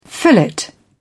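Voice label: "fillet", British English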